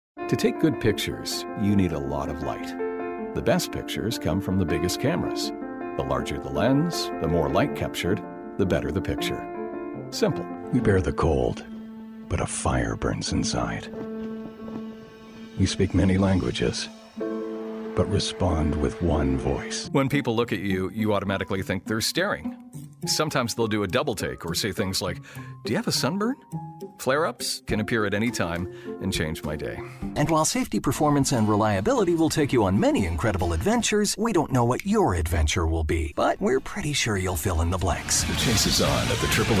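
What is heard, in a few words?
COMMERCIAL, voiceover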